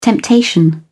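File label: "temptation", British English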